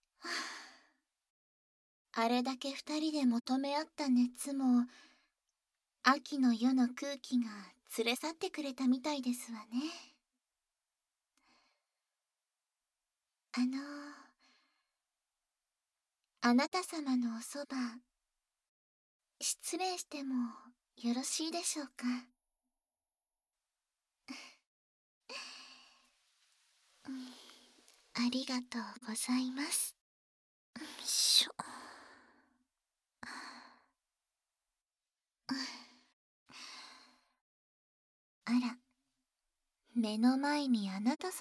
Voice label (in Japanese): 添い寝でお休みなさい (バイノーラル)